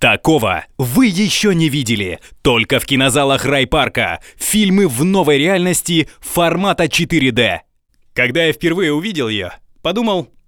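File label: Russian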